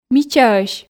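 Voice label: "мичаӧсь", Komi, female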